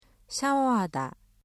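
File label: "샤워(를) 하다", Korean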